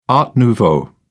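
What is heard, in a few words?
"art nouveau", British English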